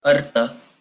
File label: "ӕртӕ", Ossetian